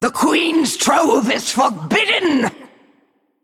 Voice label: Female Naga Play

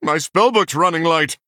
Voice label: Male Human Low Cards

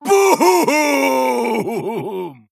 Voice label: Male Abomination Death

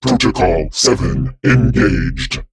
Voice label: Male Mech

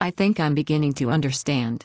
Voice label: Female Human; Cariel; Scabbs; Mission9 Exchange

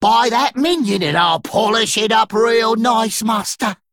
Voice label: Male Dredger Triple

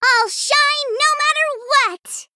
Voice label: Female Gnome Start

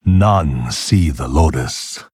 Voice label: Male Pandaren

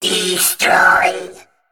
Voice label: Male Mech Attack

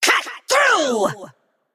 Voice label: Female Naga Attack

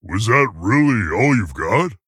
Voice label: Male Tauren Play

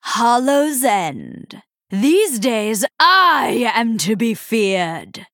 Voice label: female night elf, "happy Halloween"

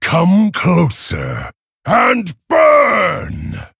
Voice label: Male Fel Orc

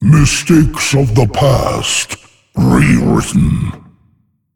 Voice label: Male Dragon

